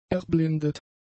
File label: "erblindet", German; adjectives